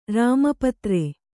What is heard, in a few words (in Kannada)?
"ರಾಮಪತ್ರೆ", Kannada